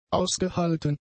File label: "ausgehalten", German; adjectives